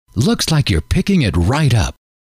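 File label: looks like you re picking it right up, male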